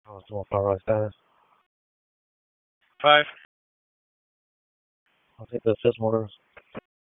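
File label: Raleigh Police Northeast District Dispatch; wake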